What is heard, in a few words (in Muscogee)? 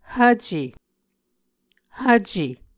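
"hvce", Muscogee word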